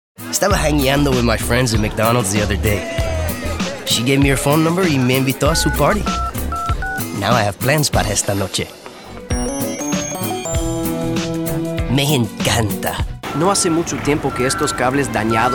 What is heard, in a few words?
languages men